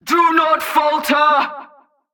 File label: Female Chokepoint Line, dialogue; NPC; Heist Cultist Chokepoint